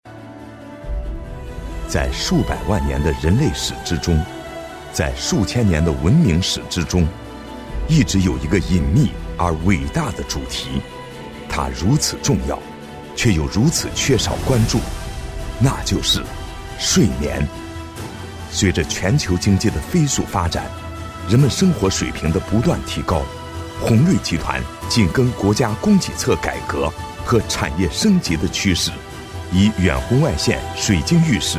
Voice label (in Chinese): 男 企业宣传; 红瑞